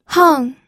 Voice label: "heng4", pinyin audio